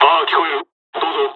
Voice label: dialogs japanese; War Thunder